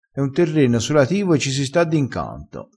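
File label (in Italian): "solativo", vocabolario dialettale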